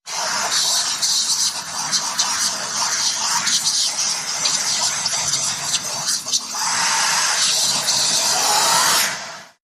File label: Vengeful Whispers Dead Talking